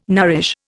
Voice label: "nourish", Indian